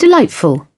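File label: "delightful", British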